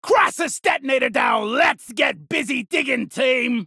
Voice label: Kill Cry